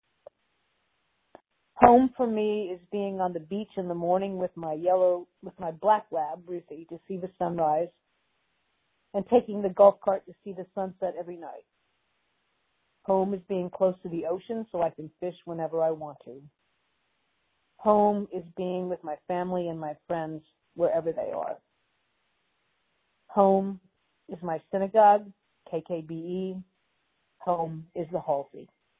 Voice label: voicemail